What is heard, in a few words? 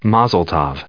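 "mazel tov" English, American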